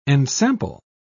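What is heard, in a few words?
"ensample", British English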